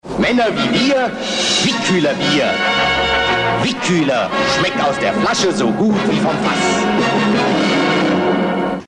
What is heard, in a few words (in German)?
werbung; wickueler